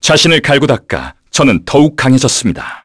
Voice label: Vox, kr; Fluss; kingsraid